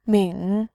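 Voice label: "ming", Mandarin, tone 3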